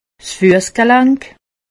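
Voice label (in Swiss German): "fussgelank", Alsatian; medecine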